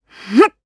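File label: Vox Casting Japanese; Glenwys; kingsraid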